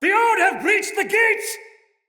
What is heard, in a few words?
fearful cry, soldier male; Dendrit